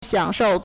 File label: "xiang3shou4", Chinese